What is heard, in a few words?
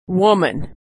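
"woman", American English; vowel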